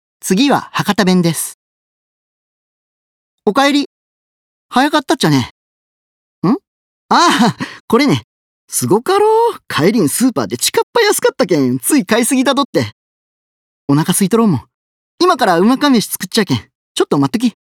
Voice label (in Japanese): 方言